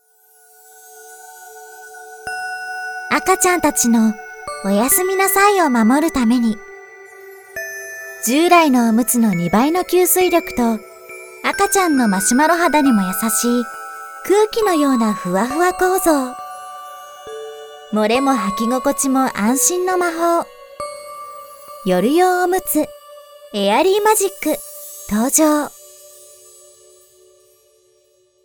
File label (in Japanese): ナレーション